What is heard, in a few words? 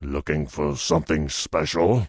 Tauren Male Elder Vendor; NPC